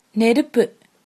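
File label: fire, Tamil, female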